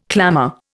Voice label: "clamour", Indian